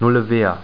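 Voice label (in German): "Null ouvert", German; Skat 3.0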